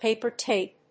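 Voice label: "paper-tape", English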